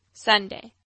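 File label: "Sunday", English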